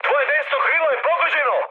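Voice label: Serbian right wing mood high; speech; War Thunder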